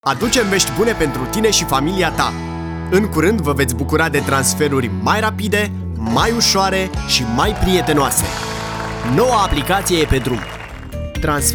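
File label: Romanian, Commercial; GoLocalise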